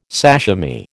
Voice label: "sashimi", American

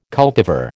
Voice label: "cultivar", American

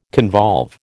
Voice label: "convolve", American

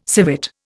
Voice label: "civet", Indian

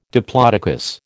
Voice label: "diplodocus", American

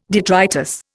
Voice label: "detritus", Indian

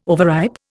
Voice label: "overripe", Indian

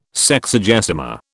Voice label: "Sexagesima", British

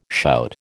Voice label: "shout", American

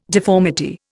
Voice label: "deformity", Indian

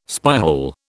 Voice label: "spyhole", British

